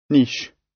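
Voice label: "niche", British; Business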